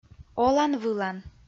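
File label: "олан-вылан" Komi-Zyrian, female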